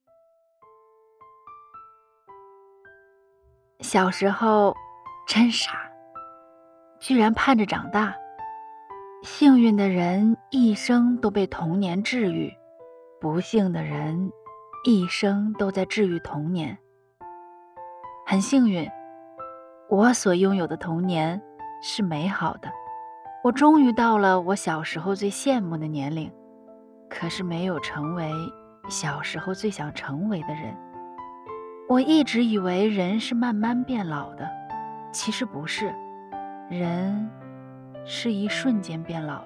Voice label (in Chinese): 情感类; 童年